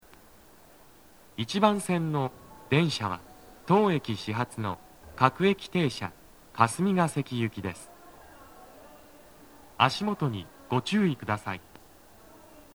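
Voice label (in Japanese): 北綾瀬 1番線 到着放送, 男声, 各駅停車 霞ケ関行, 待合室TOAでの収録; 放送は「各駅停車 霞ケ関行」です。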